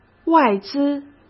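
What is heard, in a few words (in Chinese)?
"外资", Mandarin Chinese; chinese flashcards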